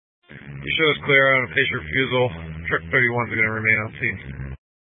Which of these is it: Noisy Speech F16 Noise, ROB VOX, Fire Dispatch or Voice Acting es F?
Fire Dispatch